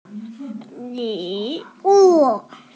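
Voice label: "li3 wu4", Mandarin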